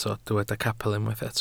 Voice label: "capel", Welsh